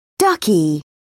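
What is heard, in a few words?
"ducky", British English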